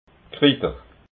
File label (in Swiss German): "kritter", alsace